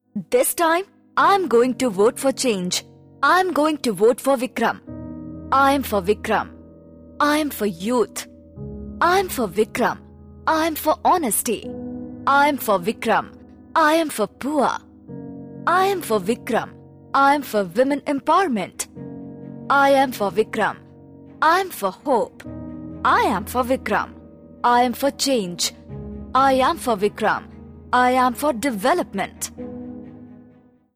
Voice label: Female english